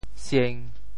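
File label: "sieng1", Teochew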